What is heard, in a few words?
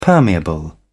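"permeable", British English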